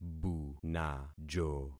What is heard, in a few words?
"bunajo", concat syllables; Affixing experiment